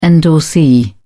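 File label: "endorsee", British English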